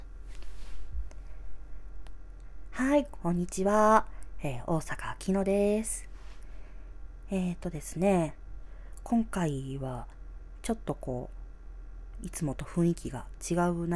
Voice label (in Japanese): 初経験トーク, ASMR